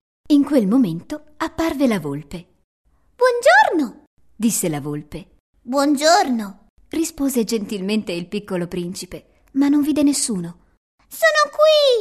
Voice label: italian character